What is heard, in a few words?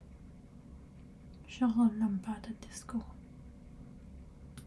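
Arabic, Saudi Arabia; speech massive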